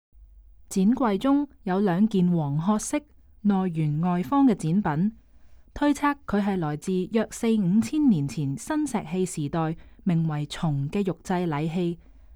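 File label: Cantonese, audio guide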